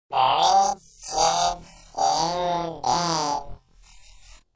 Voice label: chipmunk